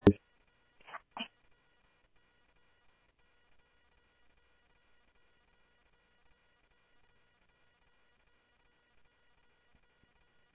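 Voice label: Mesa Police Department, scanner audio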